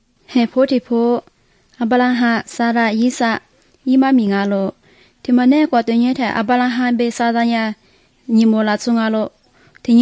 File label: good news lisu southern, drama